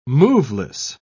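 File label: "moveless", British English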